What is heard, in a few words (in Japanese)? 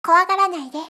"kowagaranaide", voice